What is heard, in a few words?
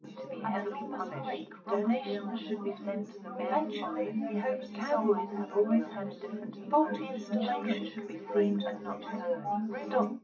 female4; SiSEC10; underdetermined